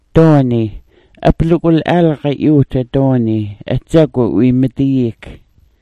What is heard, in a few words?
"doni", smalgyax